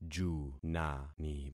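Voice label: "junani", concatenated syllables; Affixing experiment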